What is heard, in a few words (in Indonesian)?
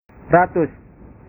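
"ratus", Indonesian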